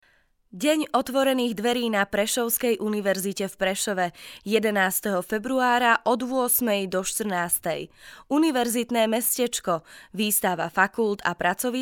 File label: spot